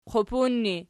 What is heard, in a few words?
"qupun", Archi